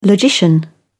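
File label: "logician", British English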